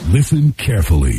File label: listen carefully announcer; Voice; introductions